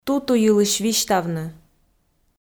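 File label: "туту йылысь висьтавны", Komi, female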